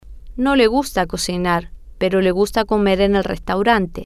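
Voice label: No Le Gusta Cocinar Le Gusta Comer En Restaurante, Spanish, female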